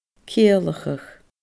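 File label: "ciallachadh", Scottish Gaelic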